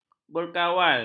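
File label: "berkawal", Malay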